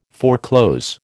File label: "foreclose", American